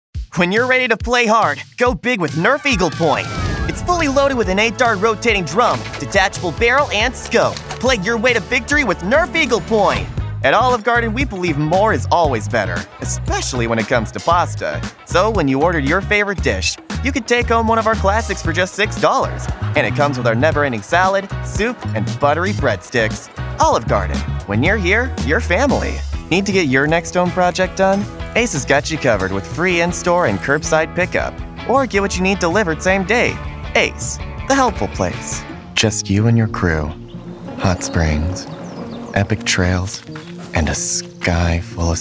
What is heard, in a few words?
Commercial, en-AU